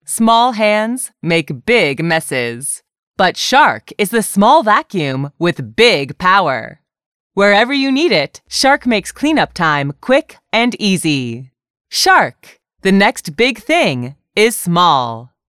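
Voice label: female, English American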